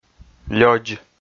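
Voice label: "лёдз", Komi, male; kpv audio dict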